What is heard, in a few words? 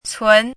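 "cún", Mandarin Chinese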